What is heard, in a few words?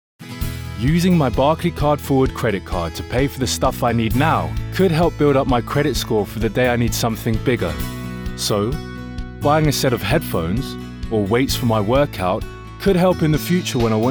Ad; Barclaycard